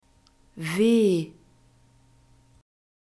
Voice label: "w", German

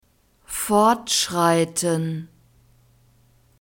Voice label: "fortschreiten", German

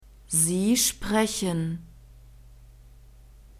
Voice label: sie sprechen, German

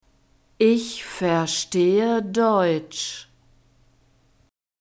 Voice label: ich verstehe deutsch, German

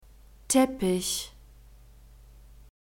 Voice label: "teppich", German; masculine

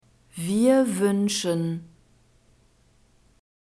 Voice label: "wir wuenschen", German

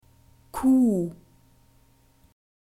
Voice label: "q", German alphabet

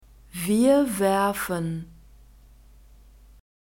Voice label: "wir werfen", German; verbs present tense irregular

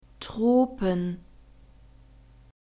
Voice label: "tropen", German

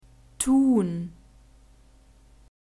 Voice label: "tun", German; verbs present tense irregular

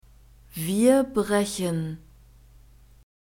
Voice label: "wir brechen", German; verbs present tense irregular

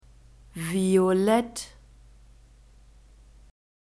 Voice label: "violett", German; alphabet

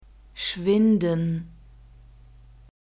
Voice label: "schwinden", German; verbs present tense irregular

